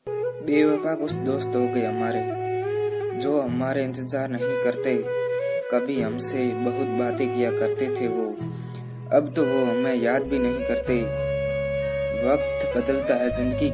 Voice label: Bewafa Dost Shayari Ringtone

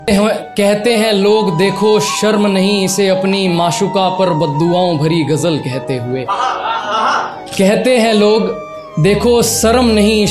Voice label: Top Sad Shayari Ringtone

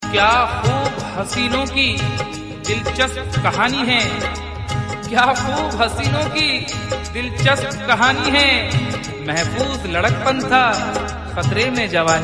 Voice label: Shayari Ringtone

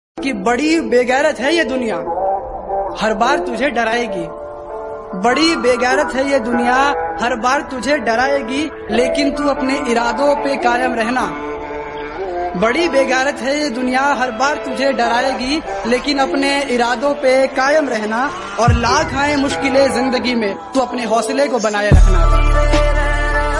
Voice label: Motivational Hindi Dialogue Ringtone

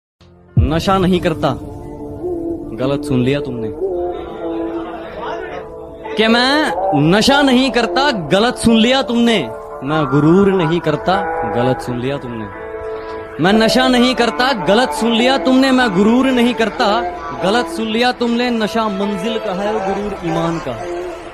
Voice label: Boy Attitude Ringtone; Galat Sun Liye Tumne

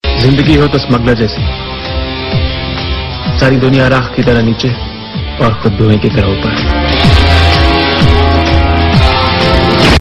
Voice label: Dialogue Ringtone; One Upon Mumbai

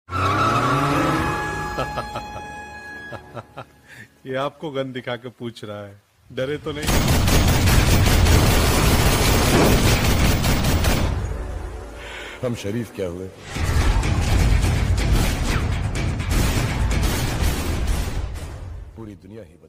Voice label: Dialouge Ringtone; Shahrukh Khan

